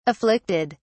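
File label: "afflicted", English, uk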